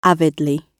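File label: "a", British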